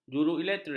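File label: "juruelektrik", Malay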